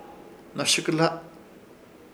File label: "Hnoshɨkɨhla" insecto fasmideo, Ishir Chamacoco